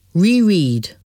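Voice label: "reread", English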